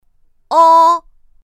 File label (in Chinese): pinyin o; pinyin2; pinyinji